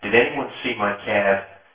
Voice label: male